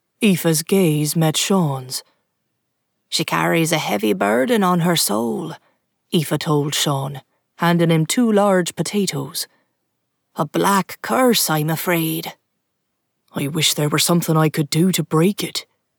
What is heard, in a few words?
Irish Accent; audiobook